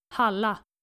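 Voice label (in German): "Halla", dänische Mädchennamen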